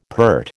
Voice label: "peart", American